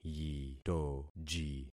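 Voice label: "yitoji", concatenated syllables; Affixing experiment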